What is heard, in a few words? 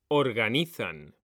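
"organizan", Spanish, male; mekanta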